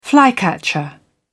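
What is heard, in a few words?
"flycatcher", British English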